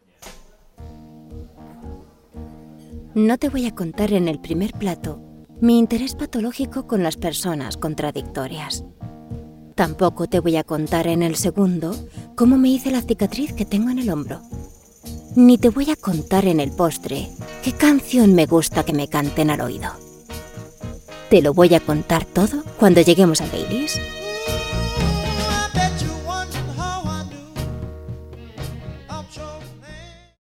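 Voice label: comercial, Spanish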